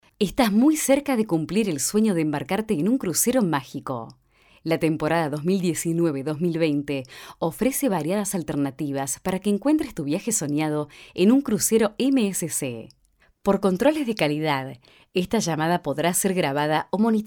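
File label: spanish argentinian interactive voice response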